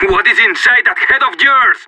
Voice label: killed friend, separatist; player vo

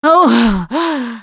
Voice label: defibrillator, russian; Producer

